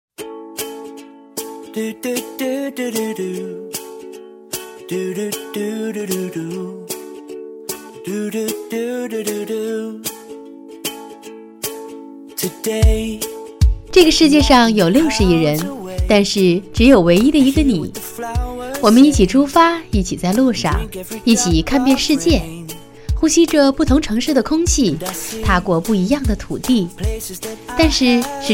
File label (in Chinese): 时尚广告轻松